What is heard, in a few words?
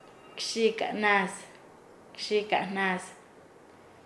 "bromear", Nasa Yuwe; paez